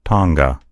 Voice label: "tonga", en US; gcompris; geography